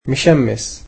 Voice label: "sunny", egyptian